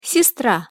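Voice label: "sestra", Russian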